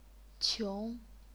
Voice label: "qiong2", chinese pinyin